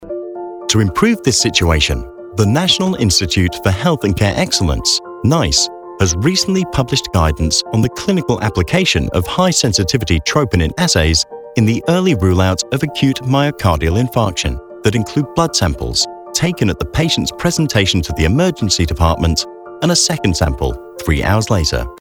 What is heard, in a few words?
technical, voiceover